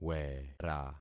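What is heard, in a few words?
"wera", concatenated syllables; Affixing experiment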